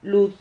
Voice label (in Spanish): "lud", Silabas